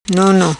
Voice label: "Nono", Macanese